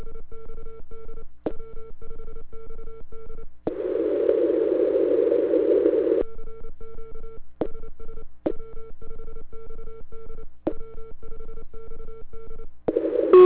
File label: QSO